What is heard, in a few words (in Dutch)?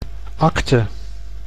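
"acte", Dutch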